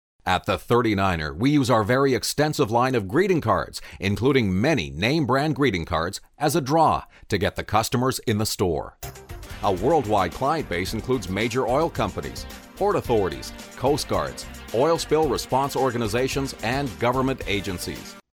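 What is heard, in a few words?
Canadian English, male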